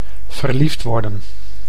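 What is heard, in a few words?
"verliefd worden", Dutch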